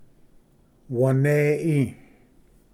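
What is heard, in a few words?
"wane", Cherokee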